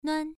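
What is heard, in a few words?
"nuan", tone 1, pronunciation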